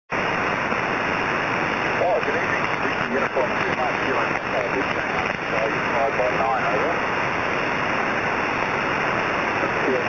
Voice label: SSB, 6m; Recorded by IT9TYR (IW9CER old call)